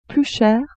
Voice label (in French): "plus cher", French; vetements